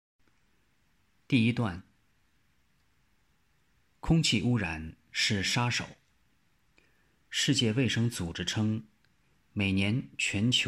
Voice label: 普通话翻译 口译片段

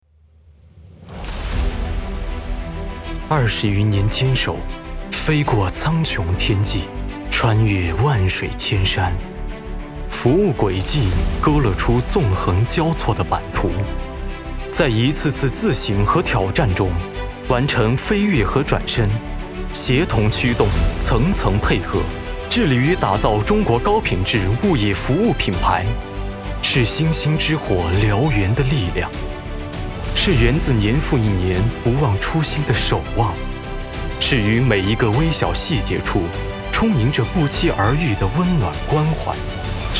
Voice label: 专题稳重

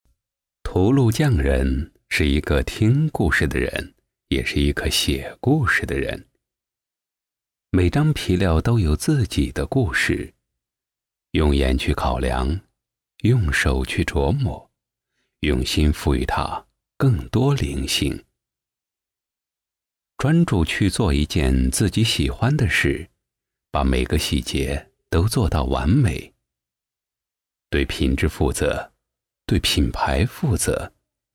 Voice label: 抖音广告轻柔风格样音

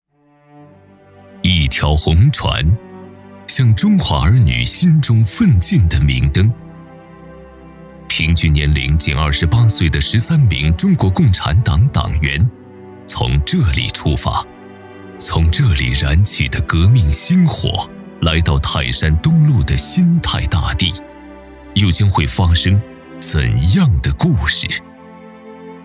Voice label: 汇报类; 山东省委党校党史学习教育

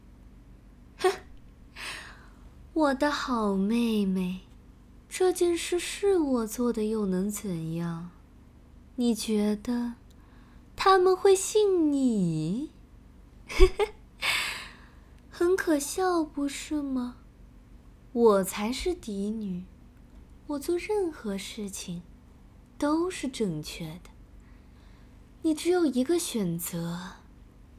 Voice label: 御姐

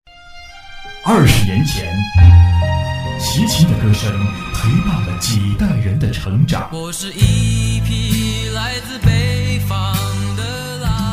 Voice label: 演唱会 官方宣传

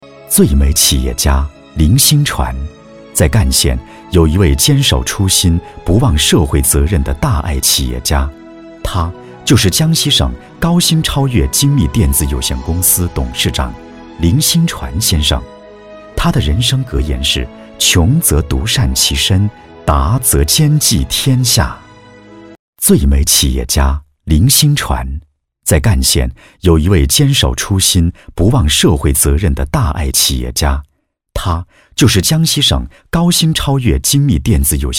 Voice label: 人物纪录片感情讲述风格

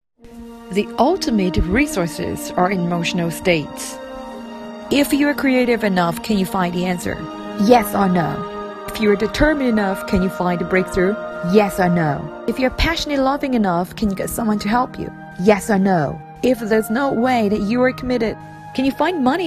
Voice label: 英文配音; 人生的资源财富

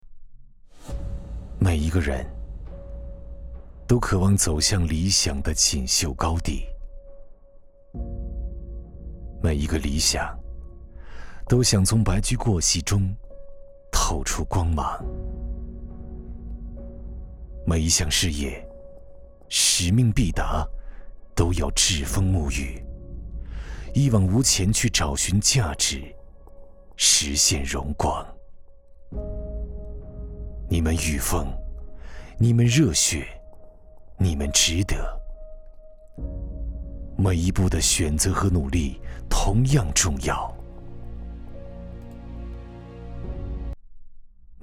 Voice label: 独白形象片, 男配音, 青年, 普通话; 每一个人

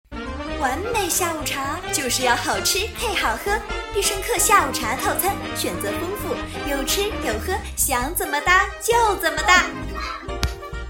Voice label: 广告配音作品; 配音秀

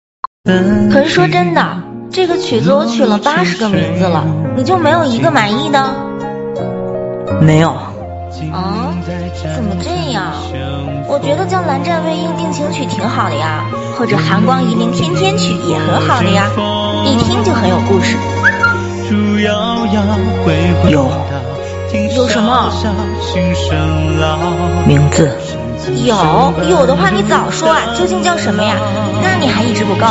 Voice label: 女声; 女声版忘羡

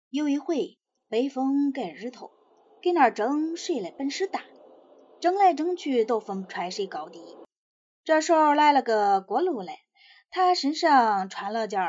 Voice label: 河南方言; 北风和日头河南方言版

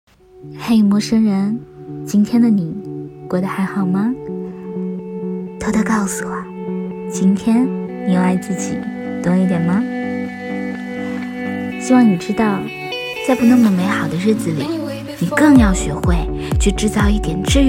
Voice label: 电台心灵鸡汤

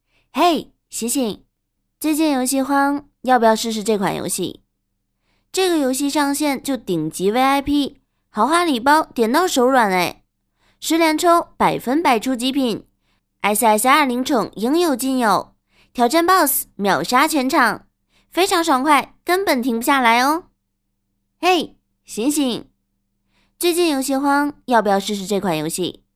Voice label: 台湾腔

